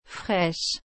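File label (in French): "fraîche", French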